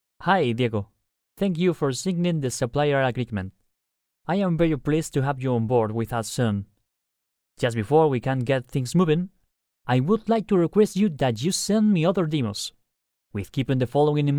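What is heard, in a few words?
Spanish Spain male voice over, English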